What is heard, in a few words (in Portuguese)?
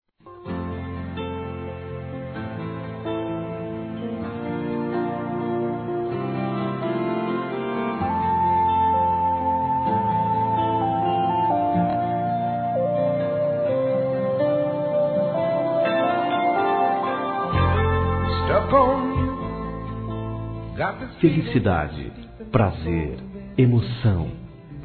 NOITE ESPECIAL M, Telemensagens; masc; Telemensagens 2017